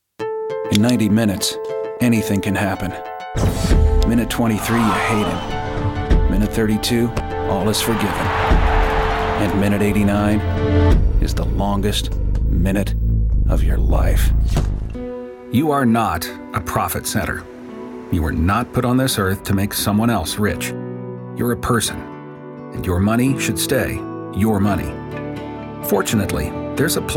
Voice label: men commercial